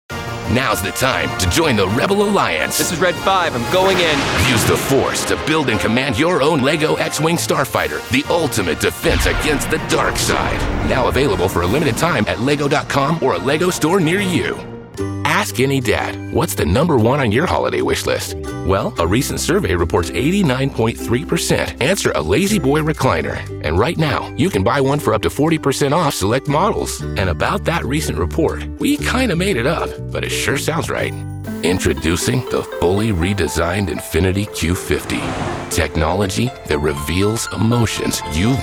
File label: Commercial Demo, Male